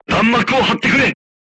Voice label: voice message art command oneself, crew tanks japanese